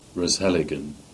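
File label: "Sellegan" Cornish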